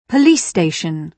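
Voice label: "police station", British English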